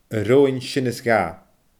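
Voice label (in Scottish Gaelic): "a roghainn sin is dha", Scottish Gaelic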